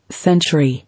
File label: "Century", English